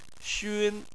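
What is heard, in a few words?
"xun", Chinese, first tone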